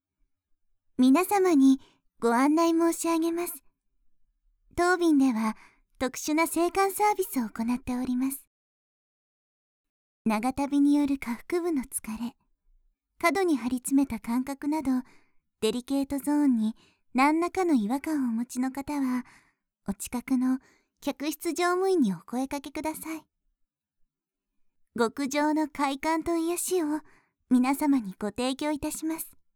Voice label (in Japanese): アナウンス; しっとりお嬢様CA